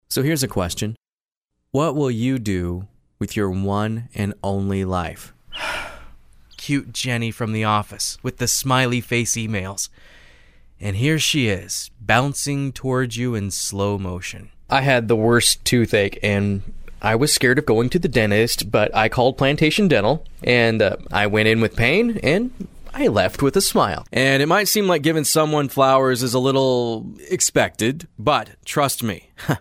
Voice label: Conversational

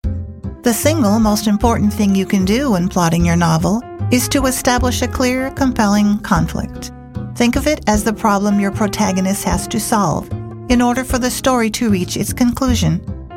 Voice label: E-learning

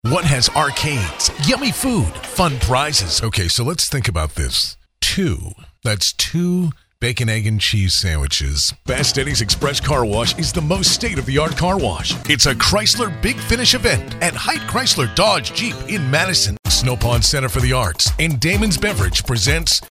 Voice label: Commercial

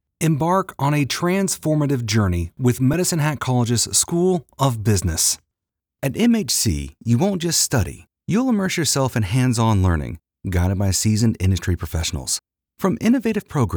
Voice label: Commercials

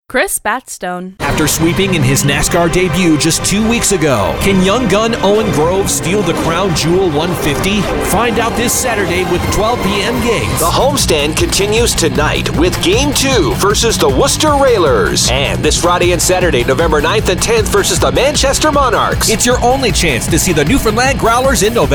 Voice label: Promo Sports

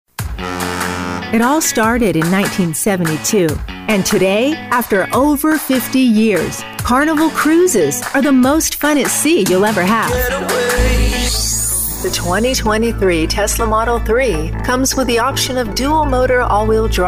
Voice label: broadcast

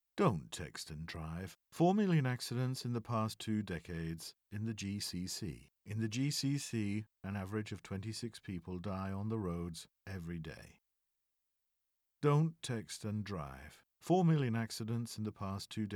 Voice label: tv commercial

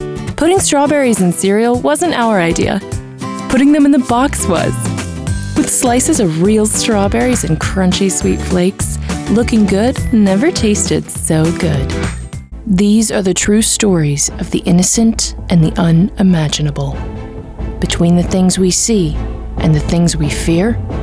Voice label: Commercial Voice

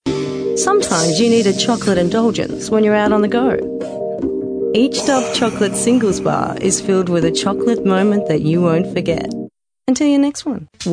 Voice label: Australian

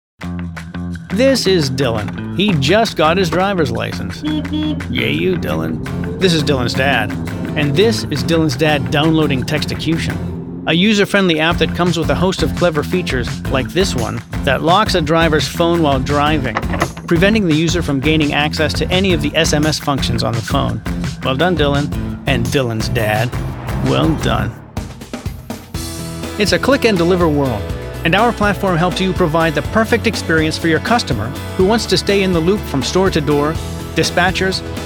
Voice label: Explainer

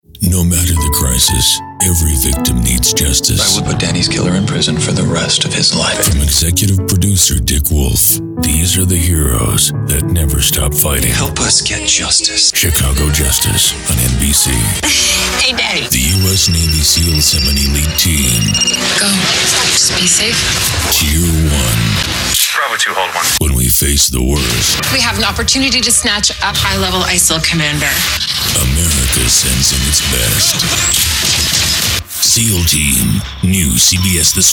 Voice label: imaging tv national

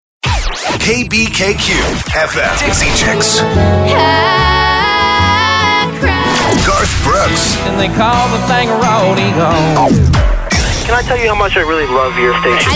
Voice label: Radio Imaging VO

